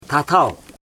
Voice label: "bag", bugun